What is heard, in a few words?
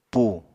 "pù", Apatani